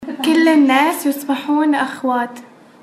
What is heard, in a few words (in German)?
arabisch